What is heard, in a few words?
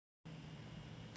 voice message